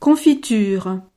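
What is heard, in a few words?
"confiture", French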